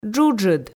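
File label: "джуджыд", Komi, female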